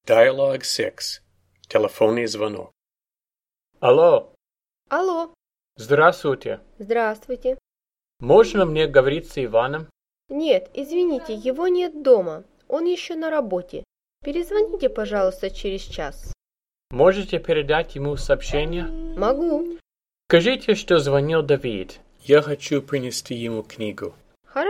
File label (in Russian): Dialog 6 Телефонный звонок